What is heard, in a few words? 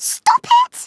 leave me alone, Scouser